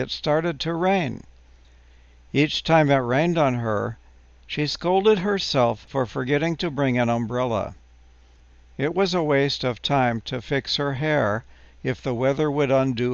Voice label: english caught in a rainstorm; ingles; escucha